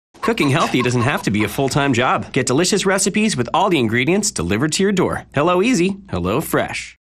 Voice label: bright guy next door; Hello Fresh